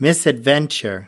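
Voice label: "misadventure", us, male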